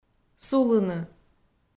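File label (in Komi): "сулыны", Komi, female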